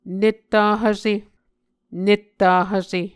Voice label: "netta hvse", Muscogee